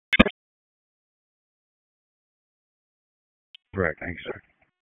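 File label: Dispatch 1, P25; WSO DISP 1; wake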